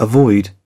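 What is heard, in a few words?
"avoid", British English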